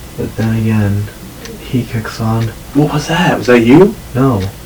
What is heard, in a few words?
Voice amped